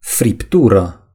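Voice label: "steak", Romanian; gcompris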